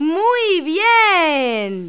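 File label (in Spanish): "muy bien", Spanish; Pipo-Aprende musica con Pipo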